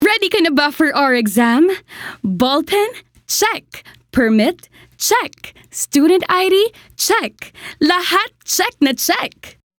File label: Taglish, Confident, Excited